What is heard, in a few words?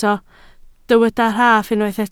"rhaff", Welsh